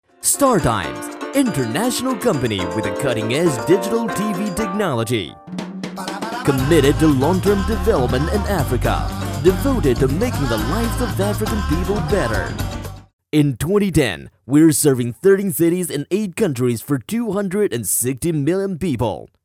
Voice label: 英男, 片花, 激情型; 非洲卫视

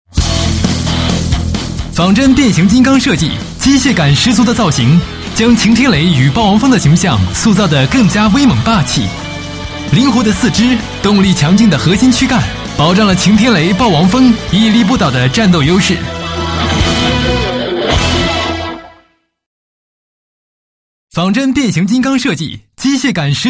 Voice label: 男 宣传广告 多功能遥控机器人简介

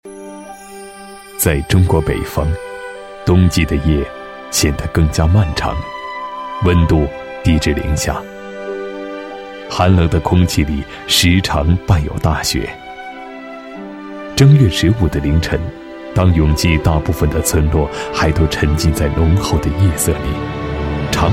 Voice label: 男 央视纪录风格 地方风物纪录片, 国语男声配音

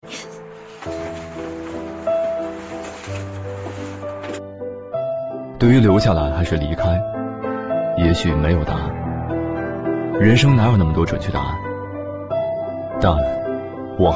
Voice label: 男 自然; 苏宁易购

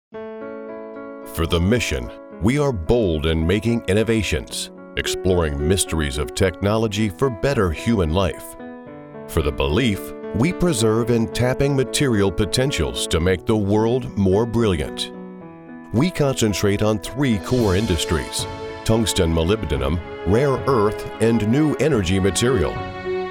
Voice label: 外籍英语男, 企业宣传, 浑厚雄伟, Dramatic Corporate

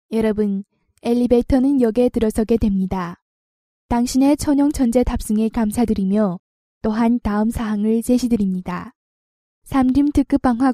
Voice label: 韩语; 百龙天梯

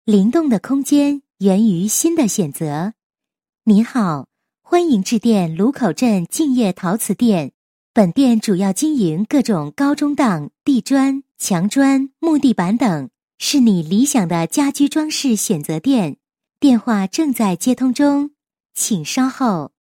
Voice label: 女 彩铃, 国语女声配音; 鲁口镇敬业陶瓷店

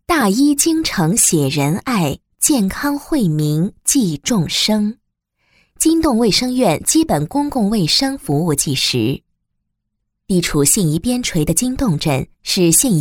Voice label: 女19 专题 解说 医院 纪实 大气, 国语女声配音; 金垌卫生院服务纪实

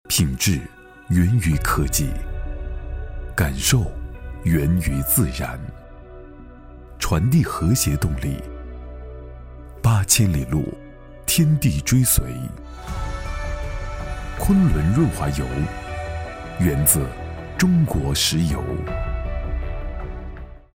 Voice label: 男 广告 醇厚韵味 润滑油, 国语男声配音 暖声录音; 昆仑

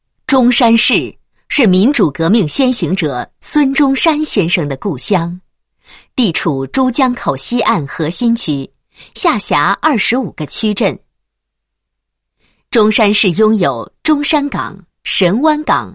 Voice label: 女 工作汇报 短片脚本; 扩大对外开放工作汇报短片脚本

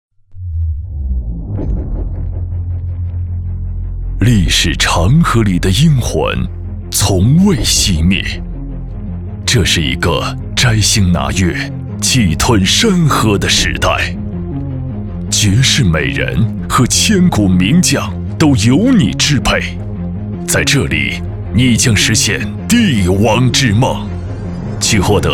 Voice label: 男 宣传片 游戏旁白

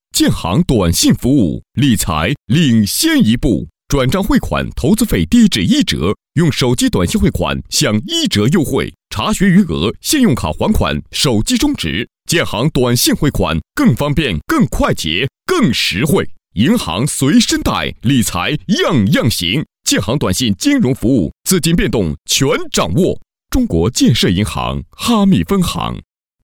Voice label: 男 企宣 宣传片, 国语男声配音; 建行

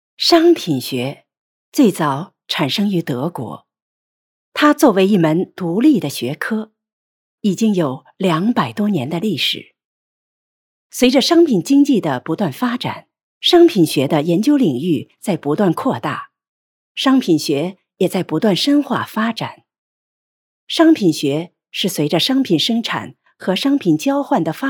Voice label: 知性播讲

